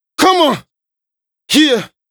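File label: Rap Do It Adlib, vocal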